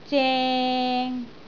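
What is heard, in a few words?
"zeng3", Cantonese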